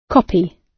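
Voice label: "copy", British English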